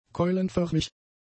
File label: "keulenförmig", German; adjectives